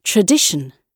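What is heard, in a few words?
"tradition", British English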